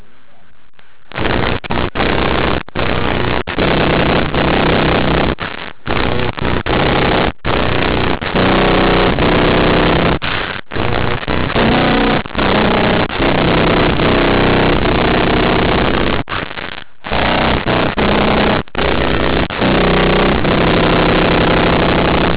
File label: robot